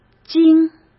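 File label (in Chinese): "茎", Mandarin Chinese; hsk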